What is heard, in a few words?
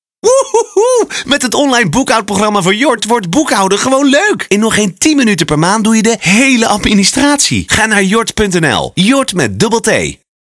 radio commercial; bnr